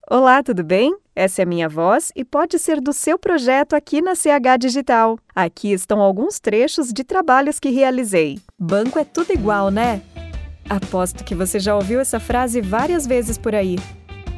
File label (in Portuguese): Voz Feminina